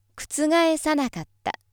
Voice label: female